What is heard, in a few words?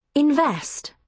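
"invest", British English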